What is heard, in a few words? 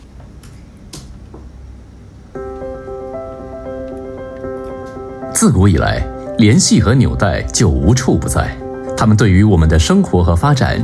Mandarin; Exxon Mobil